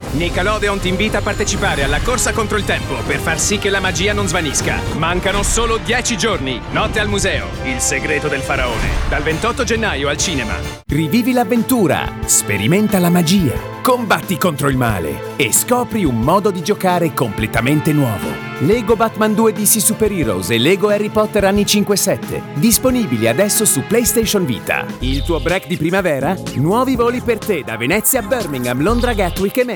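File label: Italian, Commercial; GoLocalise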